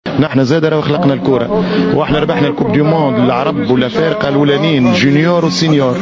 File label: tunis, arabic dialect